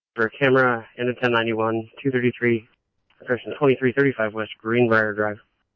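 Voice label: scanner, Phoenix Police Department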